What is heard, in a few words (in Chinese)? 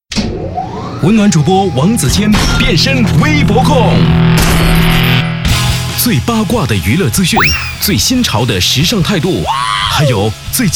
电台; 我是微博控网络版